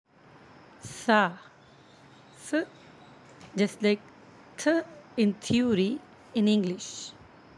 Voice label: "tha", Arabic; ث; Like Th

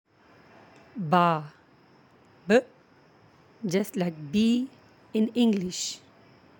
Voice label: "baa", Arabic; ب; Like B

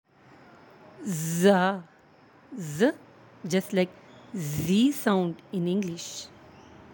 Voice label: "za", Arabic; ز

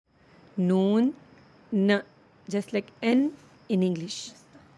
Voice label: "noon", Arabic; ن; Like N

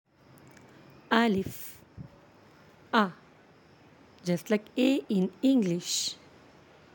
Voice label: "alif", Arabic; ا; Like A

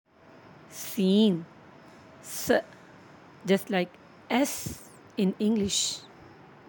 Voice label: "seen", Arabic; س; Like S

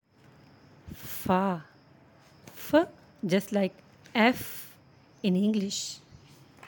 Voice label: "faa", Arabic; ف; Like F

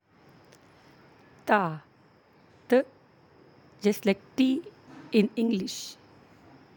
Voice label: "taa", Arabic; ت; Like T

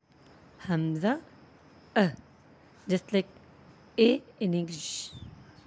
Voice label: "hamza", Arabic; ء; Like A

